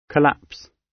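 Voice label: "collapse", British English; Time, history; Thời gian, lịch sử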